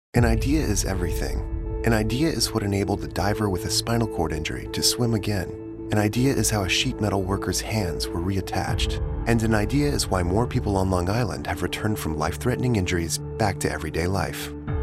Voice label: radio; sbm trauma radio simpletruths